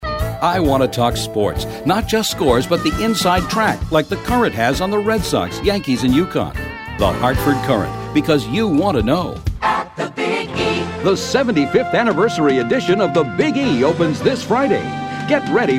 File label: commercial